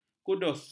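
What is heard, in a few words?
"kudus", Malay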